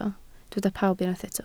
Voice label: "pawb", Welsh